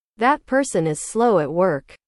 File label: english